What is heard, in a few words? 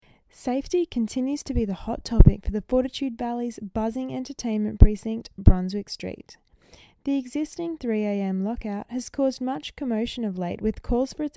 vox pop; Valley